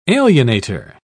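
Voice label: "alienator", British English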